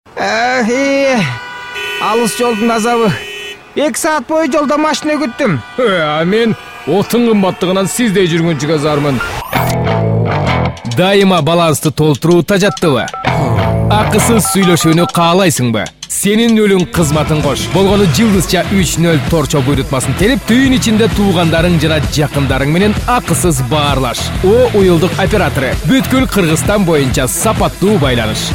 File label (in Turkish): Kirgizca Erkek; seslendirme